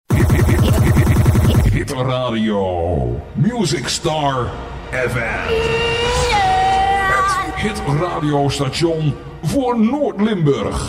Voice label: Hot shot hit radio, jingles

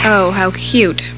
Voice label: cute; Ranma

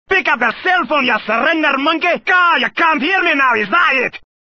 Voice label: Pick Up The Phone Scottish; ringetoner

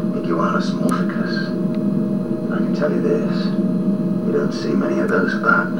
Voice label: sexy voice

